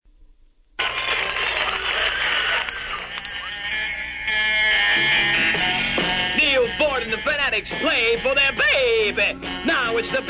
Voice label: Vox Commercial